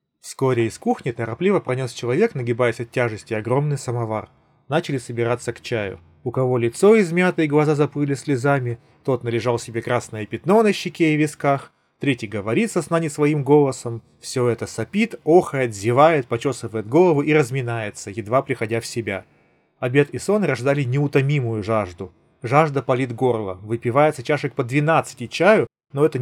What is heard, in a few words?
Recorder; xiaomi buds 6